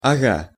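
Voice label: "ага", Russian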